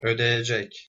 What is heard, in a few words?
"odeyecek", Turkish